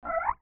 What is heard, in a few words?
"Pu" muffled